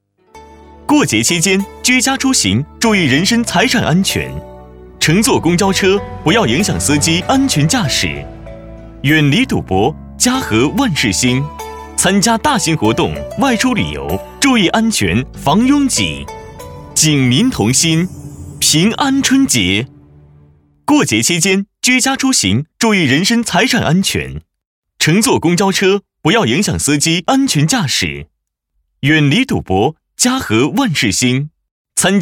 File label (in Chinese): 男 宣传